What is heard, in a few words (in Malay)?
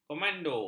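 "komando", Malay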